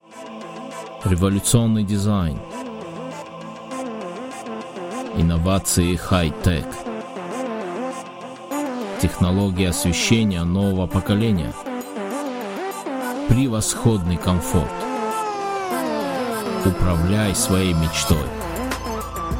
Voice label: Russian; Drive your dream

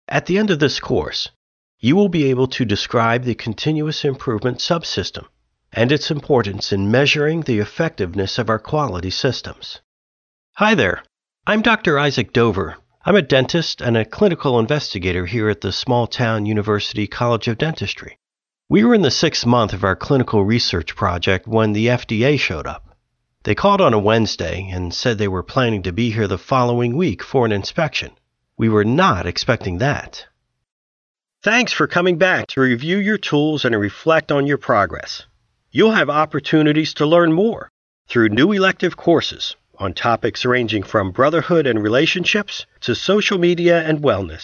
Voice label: e-learning

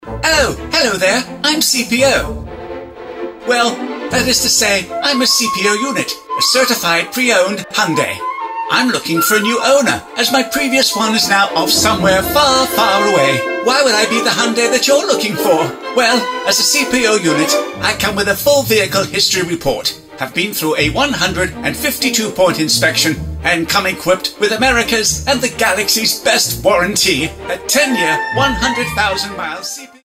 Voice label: TV CM; Hyundai

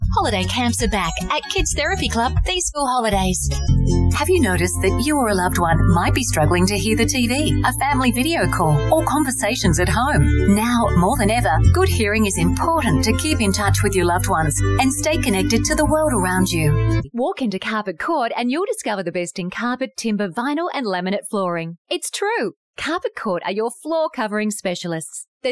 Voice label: Radio

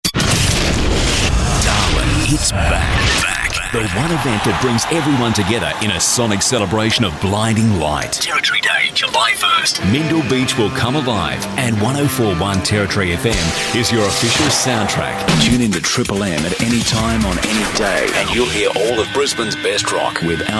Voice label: Imaging

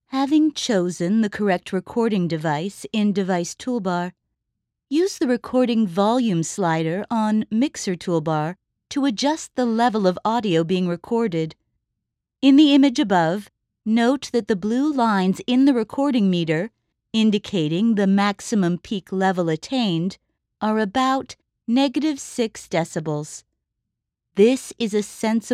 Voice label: ELearning Sample

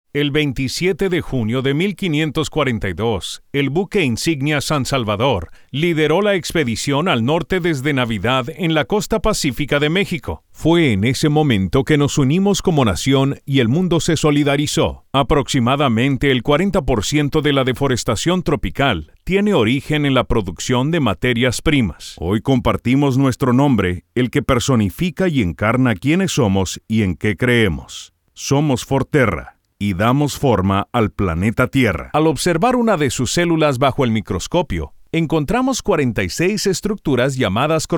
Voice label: Narration Spanish

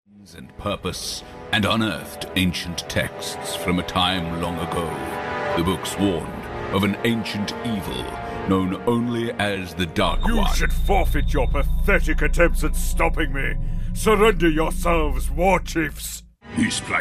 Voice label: CHARACTERS MALE BAD GUYS

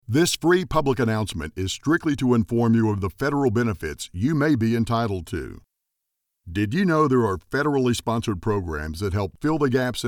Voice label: Telephone Broadcast

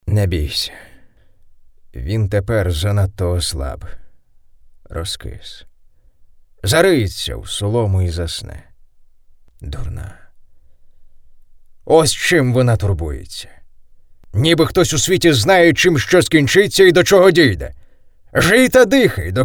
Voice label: MONOLOG

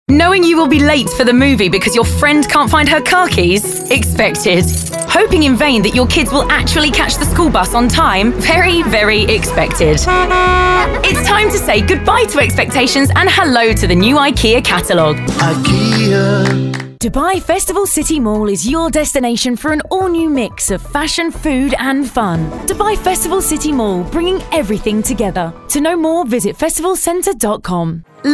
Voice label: Commercial Voiceover

